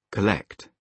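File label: "collect", British English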